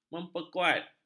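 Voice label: "memperkuat", Malay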